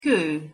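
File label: "ko", Hungarian pronunciation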